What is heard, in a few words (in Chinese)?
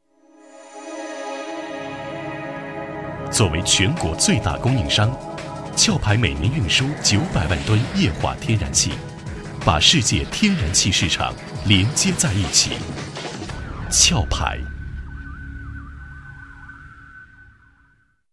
男国 广告; 壳牌